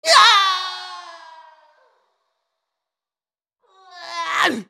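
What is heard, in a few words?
dying, English; Rumble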